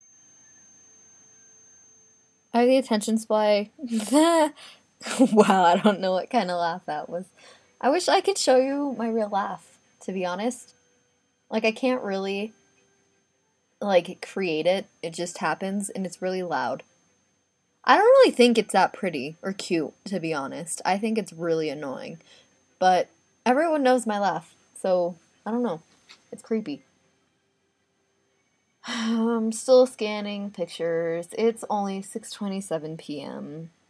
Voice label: accent hi